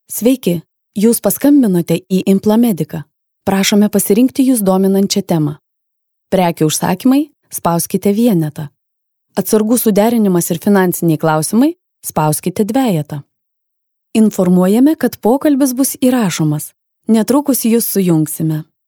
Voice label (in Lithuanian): pranesimas